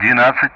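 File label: Speech Sound Russian; DCS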